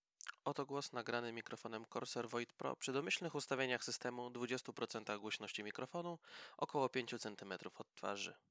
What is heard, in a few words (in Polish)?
odszumiony